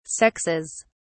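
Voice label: "sexes", British English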